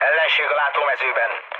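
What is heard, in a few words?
enemy detected, mood low, Hungarian; War Thunder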